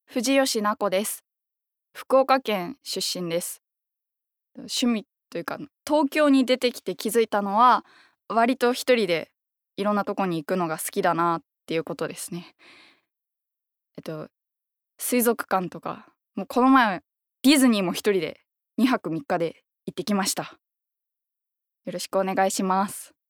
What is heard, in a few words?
Freetalk, female voice